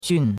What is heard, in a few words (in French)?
"qun4", chinois; Cours-de-Chinois